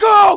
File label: Human Male Yells And Cheers Go Frantic